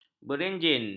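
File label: "berenjin", Malay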